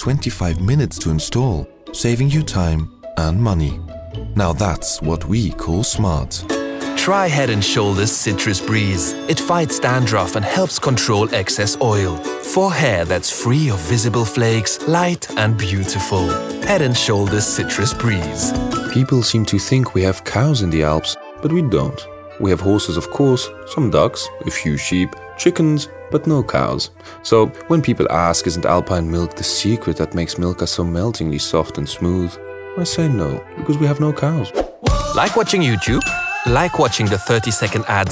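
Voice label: English with Continental European accent Commercial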